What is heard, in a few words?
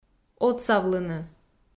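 "отсавлыны", Komi-Zyrian, female; audio dict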